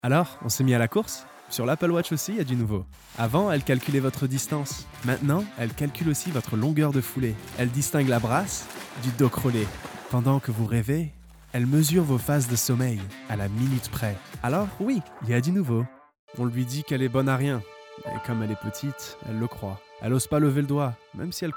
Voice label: french commercial